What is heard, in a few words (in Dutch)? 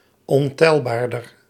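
"ontelbaarder", Dutch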